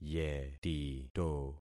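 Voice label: "yetito", concatenated syllables; Affixing experiment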